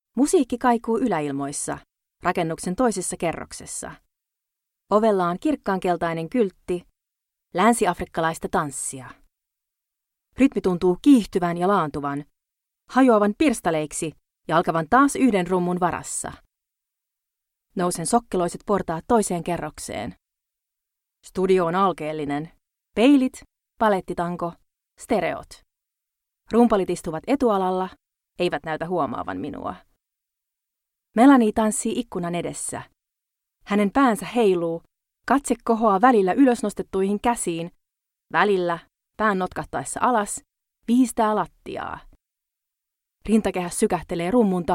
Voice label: Finnish F Corporate; voice over